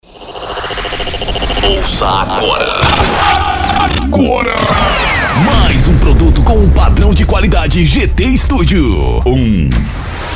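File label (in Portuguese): vinhetas rádio - gospel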